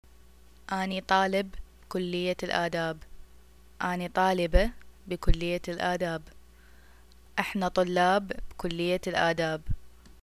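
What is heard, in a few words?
Iraqi I'm student, arabic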